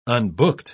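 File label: "unbooked", British English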